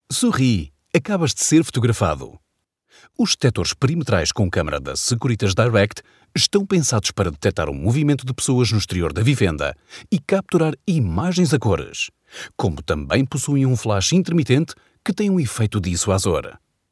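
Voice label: Portuguese elearning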